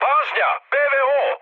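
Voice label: Serbian damaged aa mood high, speech; War Thunder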